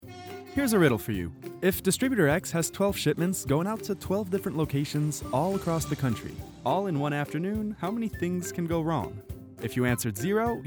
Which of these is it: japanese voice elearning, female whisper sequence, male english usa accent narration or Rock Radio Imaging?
male english usa accent narration